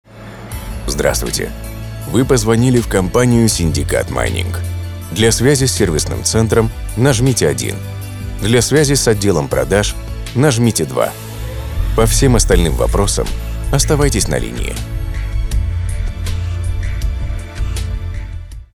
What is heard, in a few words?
IVR